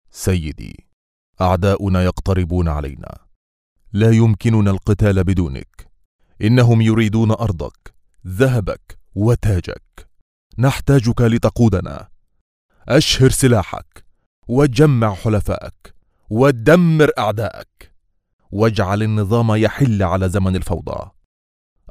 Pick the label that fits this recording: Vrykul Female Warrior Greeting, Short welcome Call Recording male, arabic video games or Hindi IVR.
arabic video games